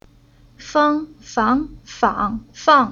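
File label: "Fang", Chinese syllable; Уроки фонетического блока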